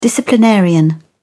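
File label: "disciplinarian", English, British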